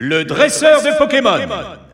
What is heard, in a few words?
French Announcer; Pokémon Trainer; SSBU